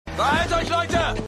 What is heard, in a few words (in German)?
Arbeiter, Stimmproben; Shameless